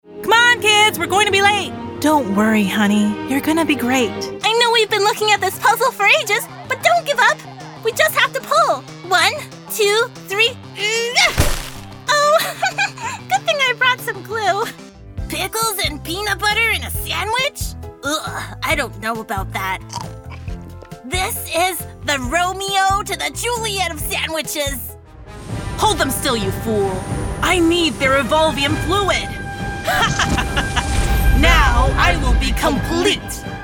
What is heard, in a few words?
Character Demo